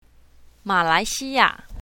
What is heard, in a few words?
"ma3lai2xi1ya4", mandarin